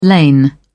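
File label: "lain", British English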